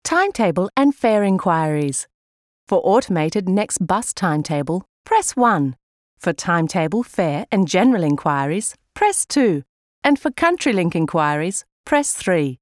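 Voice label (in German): Australian telefon, englisch; sprecher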